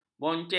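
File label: "bonceng", Malay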